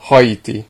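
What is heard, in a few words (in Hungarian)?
"haiti", Hungarian; gcompris; geography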